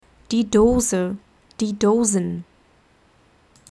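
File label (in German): "die Dose", German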